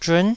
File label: "zhun9", taiwanese